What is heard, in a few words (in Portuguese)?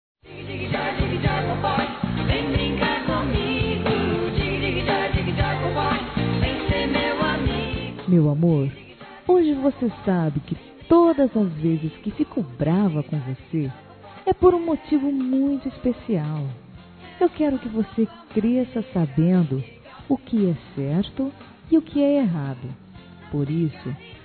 FILHO(A)-F, Telemensagens; Aniversário Infantil